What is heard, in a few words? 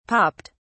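"popped", British English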